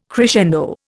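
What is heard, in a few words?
"crescendo", Indian English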